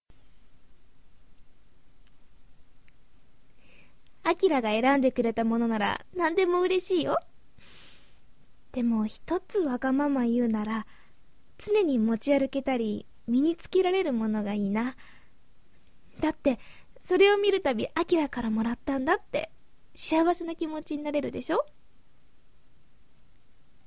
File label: Girl